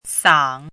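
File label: "sang3" Mandarin Chinese, dipping tone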